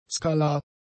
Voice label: "skalar", german; adjectives

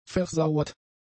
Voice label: "versauert", german; adjectives

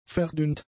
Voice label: "verdünnt", German; adjectives

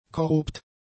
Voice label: "korrupt", German; adjectives

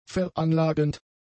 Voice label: "veranlagend", German; adjectives

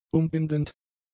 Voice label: "umbindend", German; adjectives

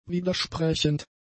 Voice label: "widersprechend", German; adjectives

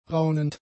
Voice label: "raunend", German; adjectives

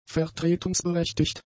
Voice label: "vertretungsberechtigt", German; adjectives

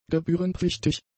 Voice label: "gebührenpflichtig", German; adjectives

